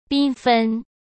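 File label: "缤纷", Mandarin Chinese; hsk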